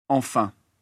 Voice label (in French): "enfin", French; fillers